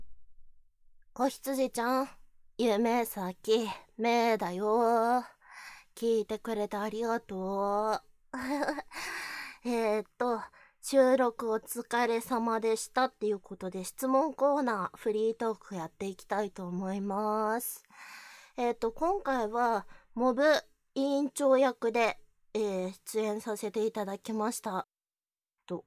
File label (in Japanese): フリートーク; おほ声